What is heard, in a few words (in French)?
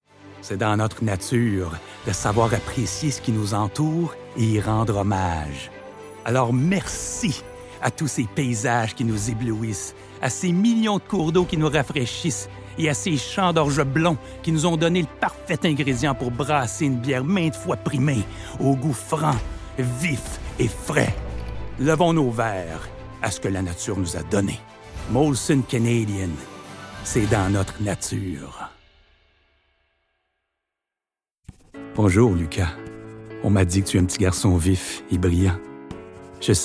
demo voix